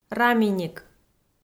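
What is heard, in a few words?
"раминик", Komi, female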